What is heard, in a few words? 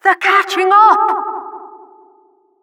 Announcer race general